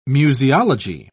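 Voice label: "museology", British English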